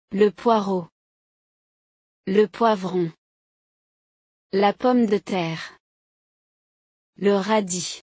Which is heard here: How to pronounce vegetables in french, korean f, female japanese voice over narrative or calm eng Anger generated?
How to pronounce vegetables in french